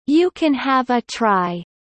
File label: Kelly low; spring festival